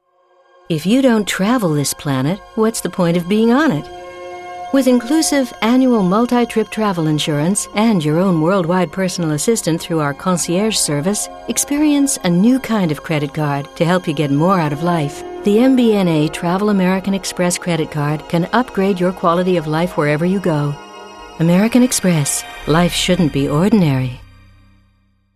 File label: voice demo